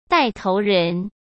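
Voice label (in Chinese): "带头人", Mandarin Chinese; hsk; chinese-flashcards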